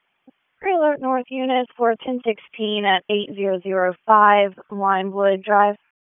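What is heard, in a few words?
Police District Dispatch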